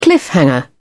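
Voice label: "cliffhanger", British English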